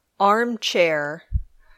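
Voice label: "armchair", English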